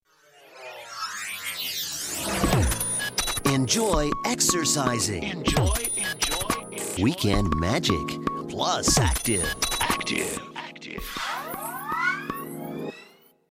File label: radio